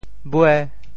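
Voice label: "bue5", Teochew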